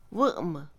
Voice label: quedar, Mixe, Mujer; Espaciales; INALI

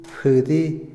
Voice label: "empezar", Otomí, hombre; INALI

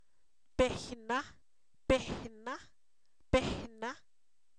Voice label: Zapoteco, san miguel albarradas; persona; humano; INALI

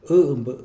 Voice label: "demandante", Mixe, hombre

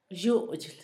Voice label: yerno, Zapoteco, Asunción Ocotlan; Humano; INALI

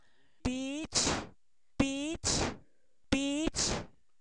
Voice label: "sapo", Zapoteco; animales; INALI

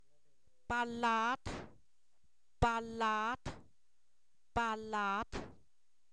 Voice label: corteza de árbol, Zapoteco, san miguel albarradas; comestible

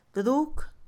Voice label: Mixe, Mujer; seis; Números; INALI

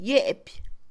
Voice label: Mixe, Mujer; caminar; Movimiento; INALI

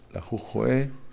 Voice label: quince, Kakchikel; Números

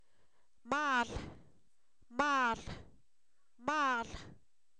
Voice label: comadre, Zapoteco, san miguel albarradas; humano; INALI

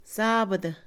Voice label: sábado, Mixe, Mujer; INALI

